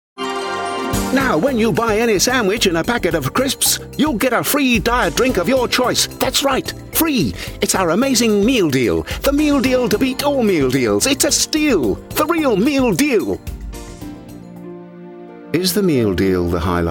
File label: radio ad